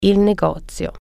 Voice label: store, italian